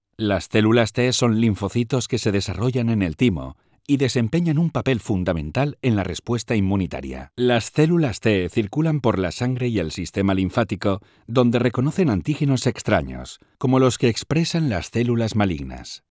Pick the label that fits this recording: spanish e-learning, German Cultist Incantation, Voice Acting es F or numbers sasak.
spanish e-learning